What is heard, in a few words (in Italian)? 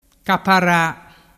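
"capara", dialect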